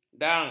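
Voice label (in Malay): "dang", Malay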